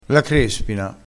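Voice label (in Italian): "crespina", dialettale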